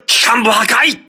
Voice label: voice message engine destroyed, crew ships japanese